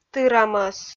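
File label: "тыра мӧс", Komi, female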